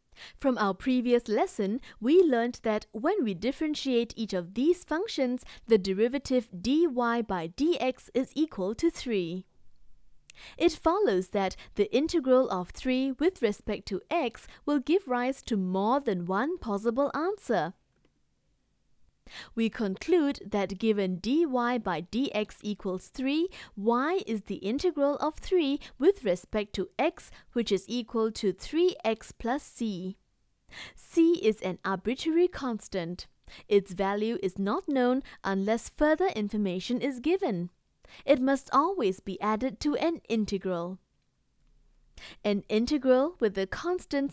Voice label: Audiobook, English